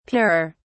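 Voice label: "purer", British English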